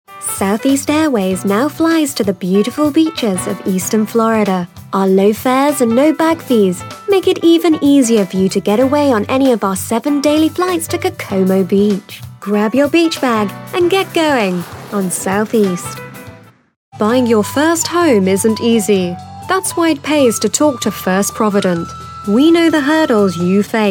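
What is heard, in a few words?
UK TV commercial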